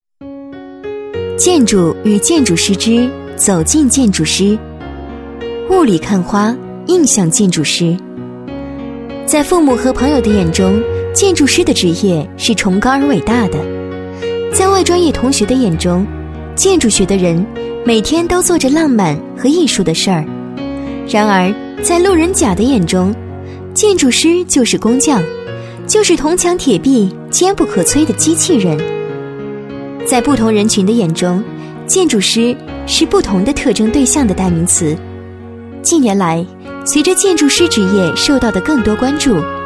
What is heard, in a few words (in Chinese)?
女-专题-建筑与建筑师之走进建筑师